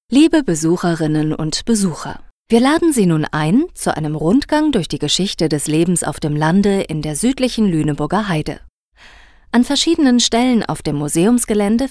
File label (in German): Audioguide; Heimatmuseum Winsen